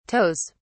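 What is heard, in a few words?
"toes", British English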